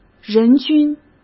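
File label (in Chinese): "人均", Mandarin Chinese; hsk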